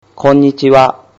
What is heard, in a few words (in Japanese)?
"konnichiwa", Japanese